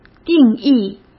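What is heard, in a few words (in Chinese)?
"定义", Mandarin Chinese; hsk; chinese-flashcards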